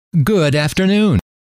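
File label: good Afternoon, male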